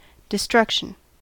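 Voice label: "destruction", American English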